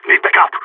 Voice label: radio need backup, vo agents; phoenix